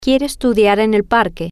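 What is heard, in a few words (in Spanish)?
Quiere Estudiar En Parque, spanish, female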